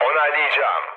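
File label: Turkish player killed enemy, mood low, speech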